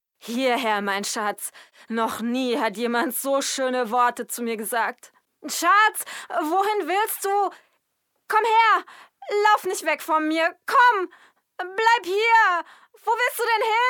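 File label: german female character; voice demos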